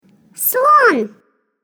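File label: RUS elephant, Russian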